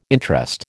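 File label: "interest", American English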